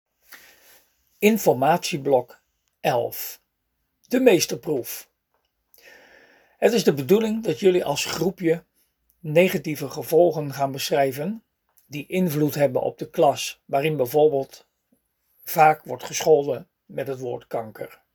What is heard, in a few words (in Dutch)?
spraak instructie